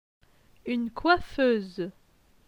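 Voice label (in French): "Une coiffeuse", French; phonetique; les voyelles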